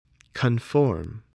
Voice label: "conform", english